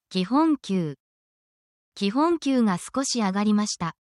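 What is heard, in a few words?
Japanese word, essential Japanese phrases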